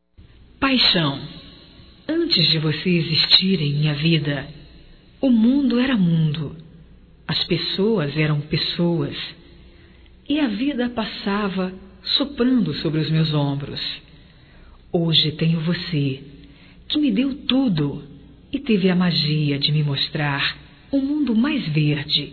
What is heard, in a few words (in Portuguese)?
ROMANTICA GERAL, Românticas fem